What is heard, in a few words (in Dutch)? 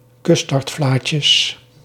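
"custardvlaatjes", Dutch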